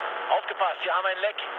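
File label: German damaged water mood low, speech; War Thunder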